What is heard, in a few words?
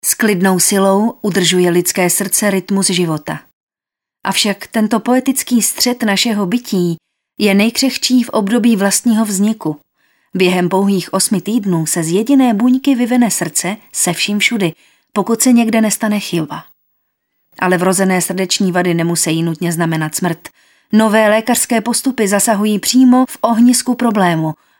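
Female Czech documentary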